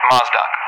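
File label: "Mozdok", English; Callsign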